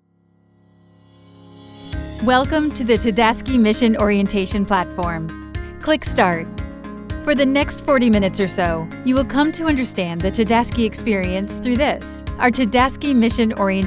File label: Narration, English US; Narrative, Tutorial